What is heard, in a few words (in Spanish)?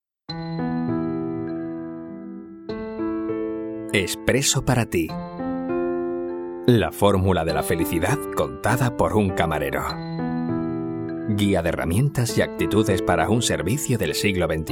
audiolibro